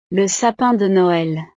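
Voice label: "le sapin de noel", French; navidad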